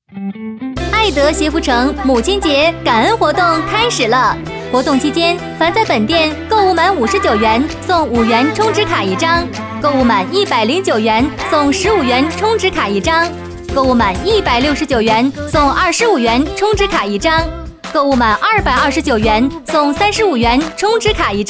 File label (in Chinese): 女-广告-母亲节感恩活动; 鞋服城; 爱德